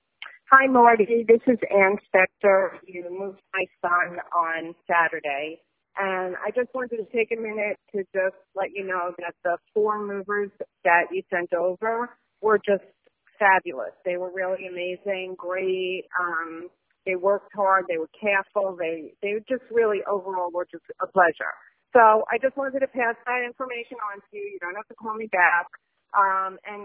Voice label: voicemail